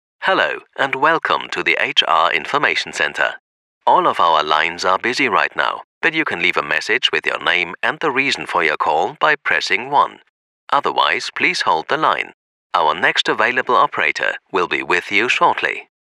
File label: telefonansage ENG